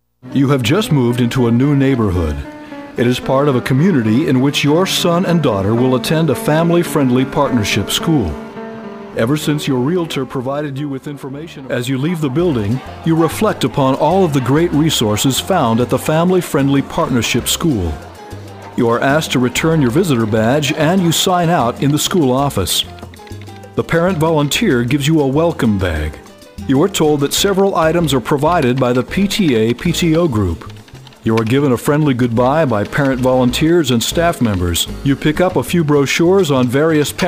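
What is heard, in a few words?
educational, Voice Over, Narration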